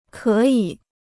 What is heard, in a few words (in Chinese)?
"可以", Chinese